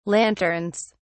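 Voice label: "lanterns", British English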